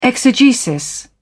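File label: "exegesis", British English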